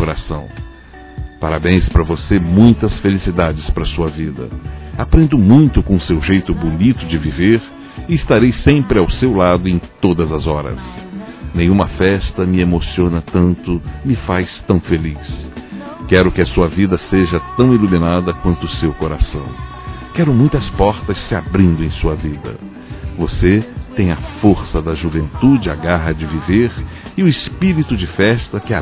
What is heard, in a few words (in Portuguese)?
Irmão (a) Adolescente Masculino; Telemensagens 2017; Aniversários; Aniversário Infantil